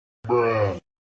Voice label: bruh pitched down